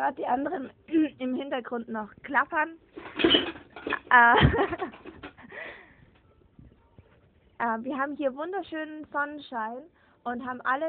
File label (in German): bordfunk